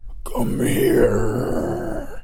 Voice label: zombie come here